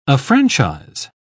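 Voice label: "affranchise", English, uk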